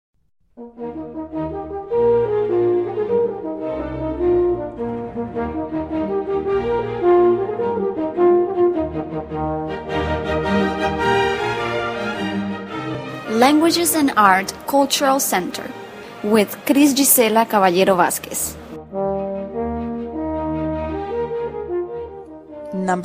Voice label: english tongue twister